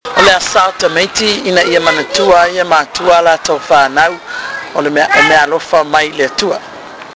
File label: samoan